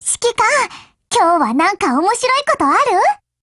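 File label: HELLO Japanese, Voice; GF Alarm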